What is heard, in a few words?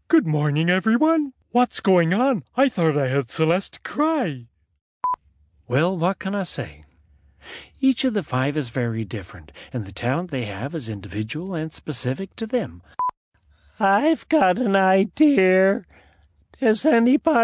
ANIMATION